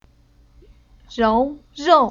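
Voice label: "Rou", Chinese syllable; Уроки фонетического блока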